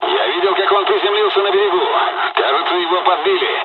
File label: dialogs russian; War Thunder